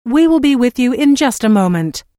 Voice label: Telephone prompt: We will be with you in just a moment, Recorded Voice; Pre-recorded telephone on-hold message.; Recorded Voice Sound Effects